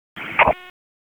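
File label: Sheriff's Office Dispatch 1, p25; WSO DISP 3; wake p25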